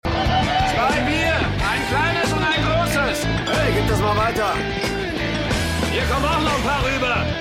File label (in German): Barkeeper Mann, Stimmproben